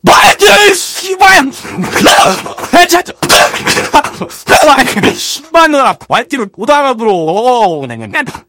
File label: scout negative vocalization